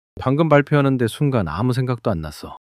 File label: fluster